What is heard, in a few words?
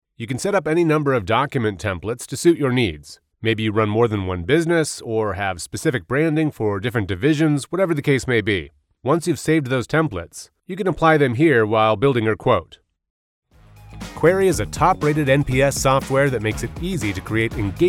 tutorials